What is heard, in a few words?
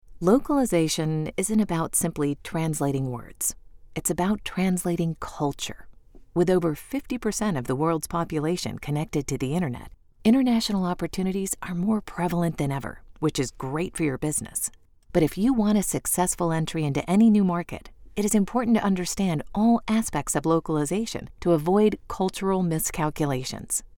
english usa female